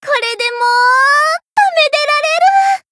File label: growth, jp